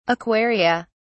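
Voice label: "aquaria", British English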